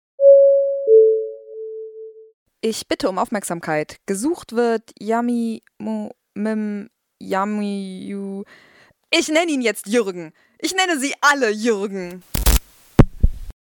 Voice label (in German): durchsage